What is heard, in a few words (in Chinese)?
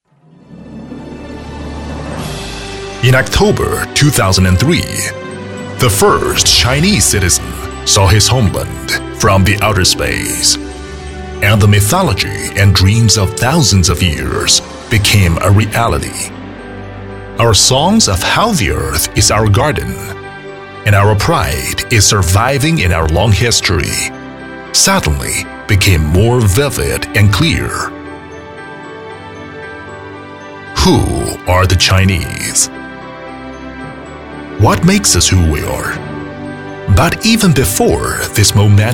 英男 英文专题 史诗大气